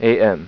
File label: "am", speech; Informer 1.0; InformerSpeak